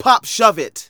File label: Narration